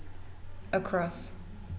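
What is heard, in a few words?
"across", Dutch, female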